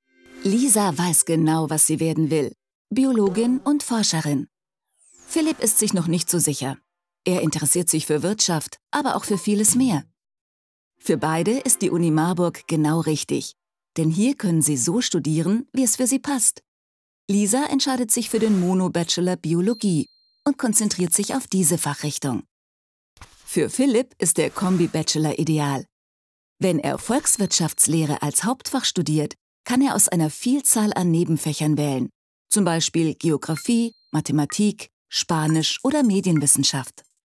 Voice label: Erklaerfilm erklaerend; Uni Marburg

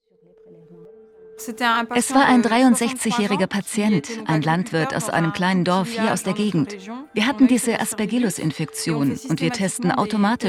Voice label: VoiceOver Aerztin; Stille Pandemie; Arte

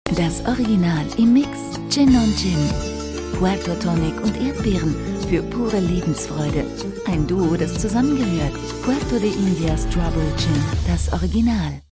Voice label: Werbung TV; Puerto de Indias

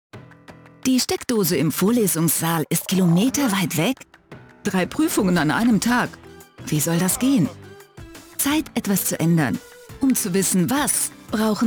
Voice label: Erklärfilm; TUC

